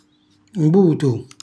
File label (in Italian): "mbutu", Calabrese; imbuto; cucina calabrese; Glossario